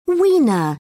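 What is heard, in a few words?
"wiener", British English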